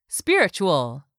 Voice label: "SPIRITUAL", english; 3000 words

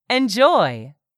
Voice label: "ENJOY", english; 3000 words

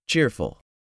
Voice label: "CHEERFUL", English; 3000 words

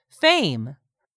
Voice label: "fame", English; 3000 words

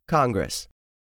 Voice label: "congress", English; 3000 words

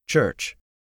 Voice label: "CHURCH", English; 3000 words

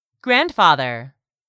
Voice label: "grandfather", English; 3000 words

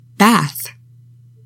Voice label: "BATH", English; 3000 words

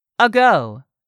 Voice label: "ago", English; 3000 words

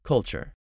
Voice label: "CULTURE", english; 3000 words

